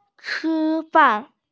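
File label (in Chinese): "chi1fan4", Mandarin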